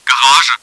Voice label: garage, german; csco; csgo; bot